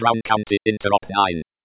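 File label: BC Interop 9, Computer Voice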